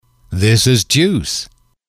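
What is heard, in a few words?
"this is juice", English, male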